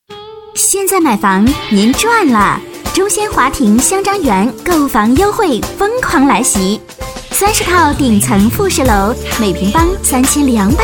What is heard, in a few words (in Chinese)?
女 广告 时尚欢快; 忠仙华庭香樟园; 国语女声配音